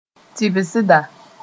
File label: "tɩbsda", Moore